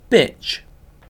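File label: "bitch", British English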